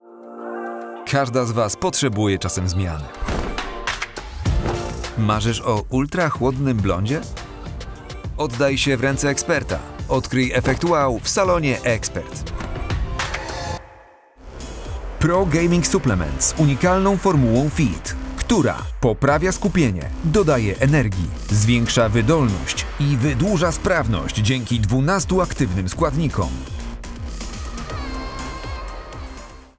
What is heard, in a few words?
polish commercial; Poland; Polish native speaker